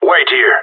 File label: hold here, radio; GSG9